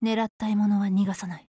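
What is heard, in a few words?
PHRASE, Japanese; NTW20 Mod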